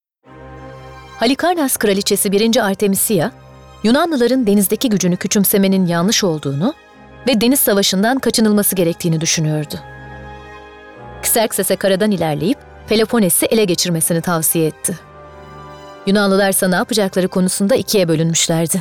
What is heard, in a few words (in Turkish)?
Belgesel